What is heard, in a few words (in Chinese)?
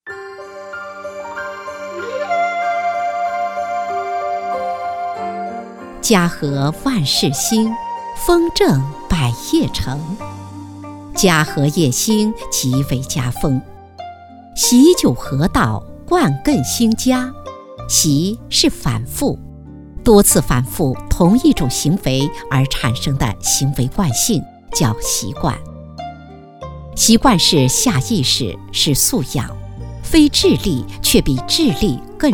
女 讲解; 家风习贯